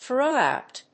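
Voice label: "throw out", English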